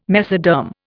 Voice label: "mesoderm", Indian

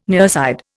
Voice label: "nearside", Indian

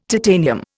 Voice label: "titanium", Indian

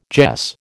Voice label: "jess", American

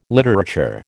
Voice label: "literature", American

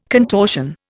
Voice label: "contortion", Indian

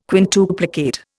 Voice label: "quintuplicate", Indian

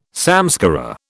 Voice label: "samskara", British

